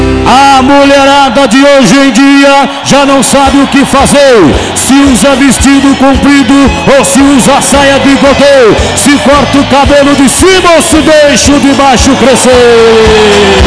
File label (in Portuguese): Versos narrados, Rodeios; Esportes